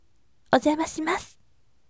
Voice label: voice ojamashimasu yasashime

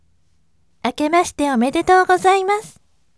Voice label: voice "akemashite omedetou" oneesan

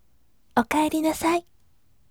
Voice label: voice okaerinasai yasashime

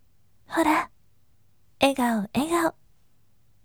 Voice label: voice hora egaoegao yasashime

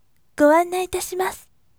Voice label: voice goannai itashimasu yasashime

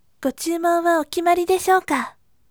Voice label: voice gotyumon okimari yasashime

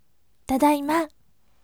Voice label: voice tadaima yasashime

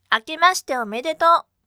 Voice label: voice "akemashite omedetou" tundere

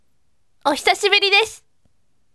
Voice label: voice ohisashiburi desu akarume

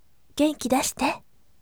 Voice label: voice genkidashite yasashime

